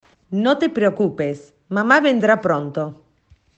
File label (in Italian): Spagnolo